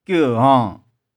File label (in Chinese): "kieu2ghong1", 玉環